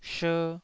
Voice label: "sher2", taiwanese Syllables

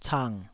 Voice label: "cang3", taiwanese

